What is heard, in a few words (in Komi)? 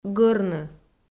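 "гӧрны", Komi, female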